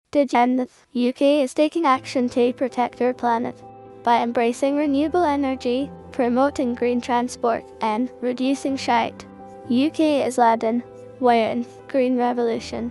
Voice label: Scottish Audio Version